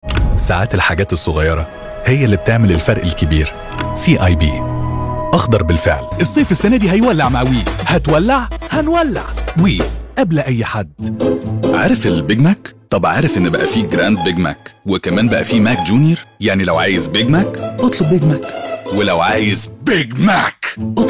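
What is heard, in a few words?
Egyptian Commercials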